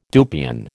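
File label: "dupion", American English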